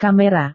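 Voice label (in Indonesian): "kamera", Indonesian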